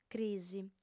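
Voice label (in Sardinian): "crisi", female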